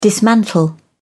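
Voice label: "dismantle", English, British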